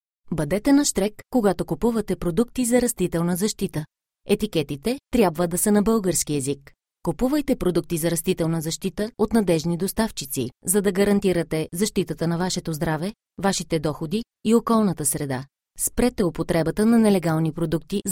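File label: Bulgarian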